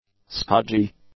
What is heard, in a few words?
"stodgy", English; adjectives